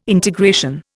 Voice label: "integration", Indian